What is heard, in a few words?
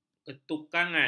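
"ketukangan", Malay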